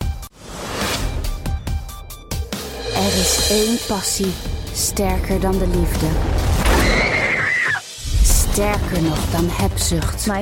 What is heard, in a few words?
Dutch